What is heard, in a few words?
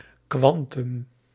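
"quantum", Dutch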